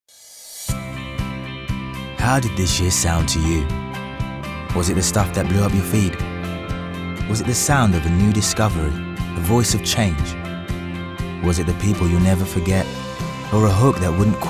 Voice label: Commercial